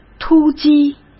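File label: "突击", Mandarin Chinese; chinese-flashcards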